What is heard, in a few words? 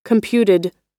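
"computed", American English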